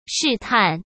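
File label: "试探", Mandarin Chinese; chinese flashcards; hsk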